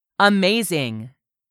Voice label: "amazing", English; 3000 words